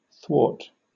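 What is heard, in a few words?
"thwart", British English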